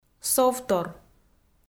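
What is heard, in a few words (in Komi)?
"совтор", Komi, female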